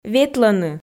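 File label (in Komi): "ветлӧны", Komi, female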